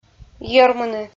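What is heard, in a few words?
"йӧрмыны" Komi, female; audio dict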